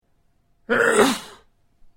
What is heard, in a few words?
"NIES", Dutch